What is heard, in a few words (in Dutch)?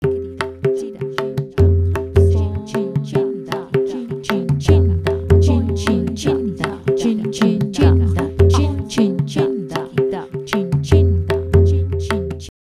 Spreekkoor Ritme korte versie